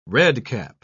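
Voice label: "redcap", English, British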